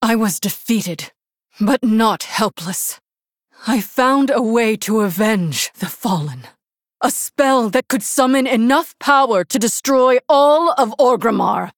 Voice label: Human Female

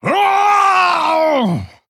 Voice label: Male Pandaren Death

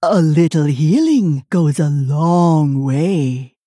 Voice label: Female Tortollan

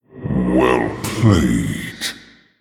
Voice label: Male Orc Well Played

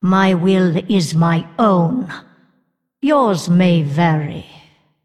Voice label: Female Undead Bark

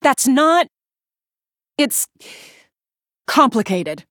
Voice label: Female Human

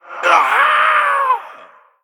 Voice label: Male Murloc Death

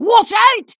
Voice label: Female Dwarf Attack

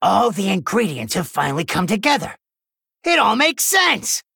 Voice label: Male Gnome; Scabbs; In Game Turn 13

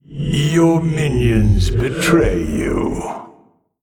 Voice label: Male Old God Emote ERROR FULL MINIONS

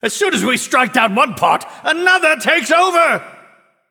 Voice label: Male Nightborne

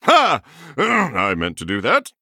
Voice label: Male Human Oops

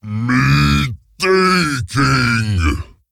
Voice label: Ogre Emote Thinking; Boulderfist

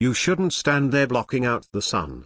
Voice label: Male Gnome; Story Hero Scabbs; BOM Scabbs Mission9 Exchange

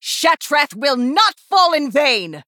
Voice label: Female Blood Elf

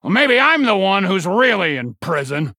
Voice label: Male Human Idle; Thelwater; Scabbs; Mission4 Idle

